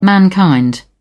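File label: "mankind", British English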